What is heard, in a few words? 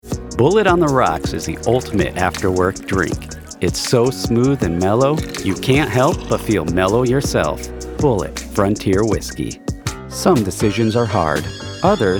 Commercial